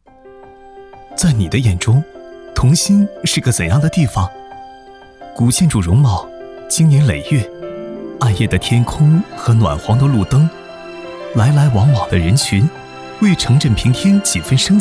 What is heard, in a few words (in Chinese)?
男 走心